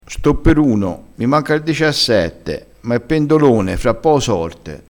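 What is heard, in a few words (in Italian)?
"pendolone", dialettale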